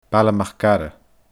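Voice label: "Baile Mac Ara", Scottish Gaelic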